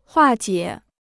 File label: "化解", Chinese